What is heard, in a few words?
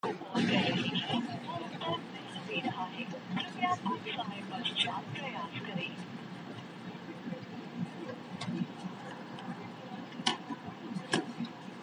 call recording